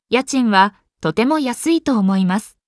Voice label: Chat